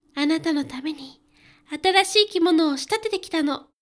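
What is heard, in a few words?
female; クール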